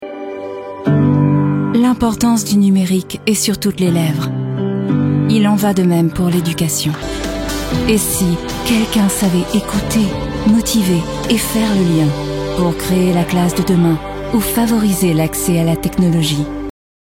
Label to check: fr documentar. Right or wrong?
Right